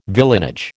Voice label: "villeinage", American